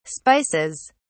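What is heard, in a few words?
"spices", British English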